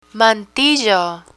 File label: "mantillo", Spanish; landscaping vocabulary; learn spanish